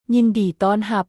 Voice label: welcome, lao; ຍິນດີຕ້ອນຮັບ; nyín dee